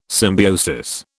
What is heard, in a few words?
"symbiosis", British